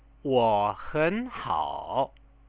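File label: wohenhao, Chinese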